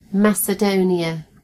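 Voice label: "Macedonia", British English; gcompris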